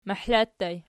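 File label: "mahla" ergative plural, Archi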